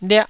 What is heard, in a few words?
"liah1", Taiwanese